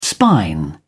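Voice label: "spine", British English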